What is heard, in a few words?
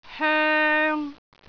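"hoeng3", Cantonese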